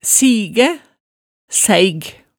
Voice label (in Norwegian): "sigæ", hemsedalsmaalet